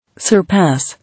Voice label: "Surpass", English

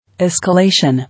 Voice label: "Escalation", English

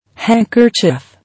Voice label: "Handkerchief", English

